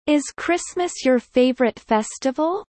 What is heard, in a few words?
low; four seasons